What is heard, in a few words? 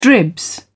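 "dribs", British English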